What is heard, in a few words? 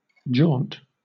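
"jaunt", British English; pronunciations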